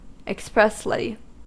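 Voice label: "expressly", American English; pronunciations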